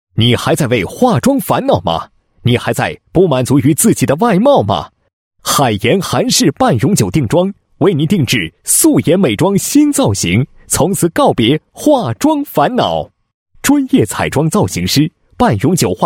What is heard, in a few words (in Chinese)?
男 产品介绍 美容; 海妍韩式半永久定妆